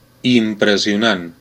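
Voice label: awesome, Finnish; gcompris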